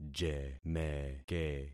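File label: "jemeke", concatenated syllables; Affixing experiment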